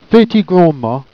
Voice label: "fait i grandma", Jèrriais